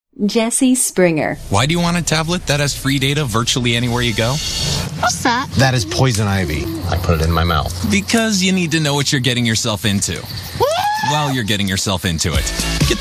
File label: Commercial